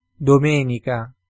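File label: "domenica", Italian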